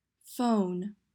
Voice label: Phone